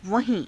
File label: "vahii~", hindi; वहीं